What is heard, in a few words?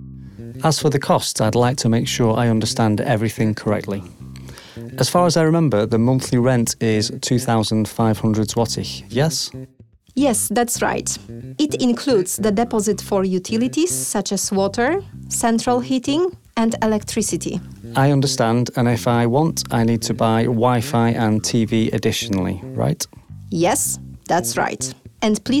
Dialog